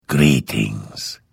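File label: Hello, Vo; Morrowind GOTY GOG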